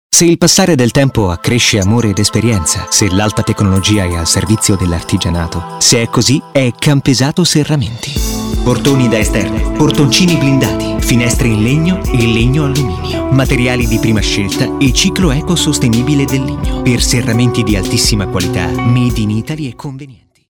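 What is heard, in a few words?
Italian, male, commercial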